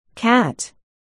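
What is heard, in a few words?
"cat", spoken word, articulation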